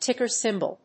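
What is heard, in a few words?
"Ticker Symbol", English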